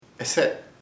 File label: pronoun for a thing, chamacoco